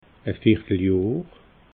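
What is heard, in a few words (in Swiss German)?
"vierteljohr", Alsatian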